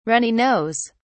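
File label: "runny nose", British English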